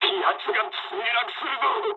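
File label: aircraft destroyed mood med, speech japanese; War Thunder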